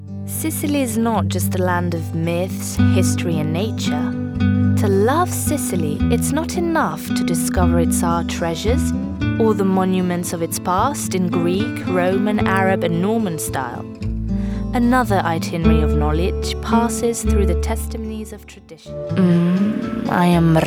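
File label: Italian English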